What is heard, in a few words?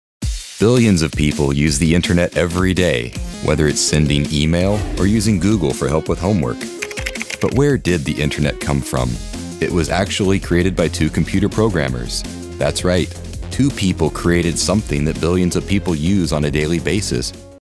Documentary Internet